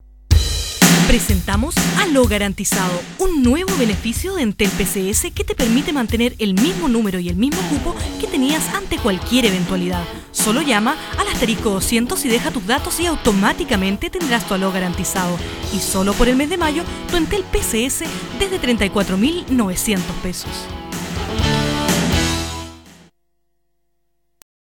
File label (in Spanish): espanol comercial; demo comercial; entel